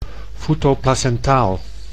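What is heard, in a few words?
"foetoplacentaal", Dutch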